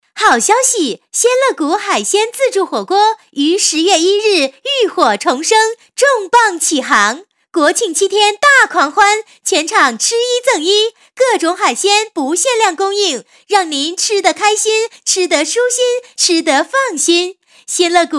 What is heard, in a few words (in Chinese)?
女 促销, 国语女声配音; 鲜乐谷海鲜